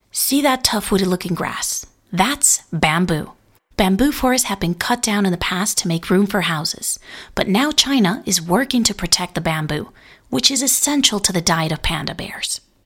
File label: english us and canada female narrative